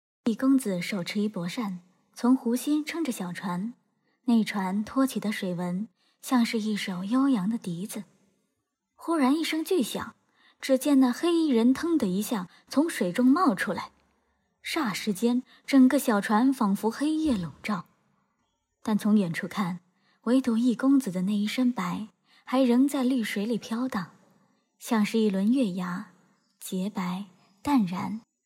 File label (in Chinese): 网文配音